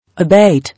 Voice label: "Abate", English

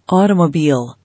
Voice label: "Automobile", English

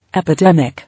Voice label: "Epidemic", English

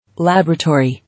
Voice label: "Laboratory", English

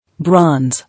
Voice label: "Bronze", English

